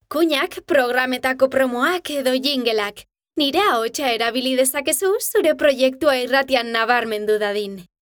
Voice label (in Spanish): SERVICIOS EUSK RADIO